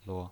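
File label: "luo", pinyin, Mandarin Chinese, neutral tone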